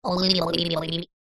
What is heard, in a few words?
cartoon voice character head shake dazed high pitched